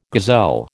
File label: "gazelle", American